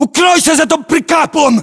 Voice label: order cover pickup, ru; localized english

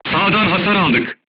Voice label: voice message shot right, turkish

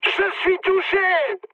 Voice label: aircraft destroyed mood high, speech fr

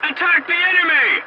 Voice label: voice message attack target, english; War Thunder

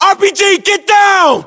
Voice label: uk threat rpg, battle chatter, English

